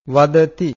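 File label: "vadati", Pali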